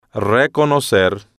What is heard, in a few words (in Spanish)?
"reconocer", Spanish